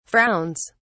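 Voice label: "frowns", British English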